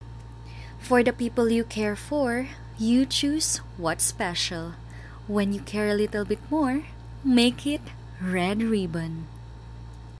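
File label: AD English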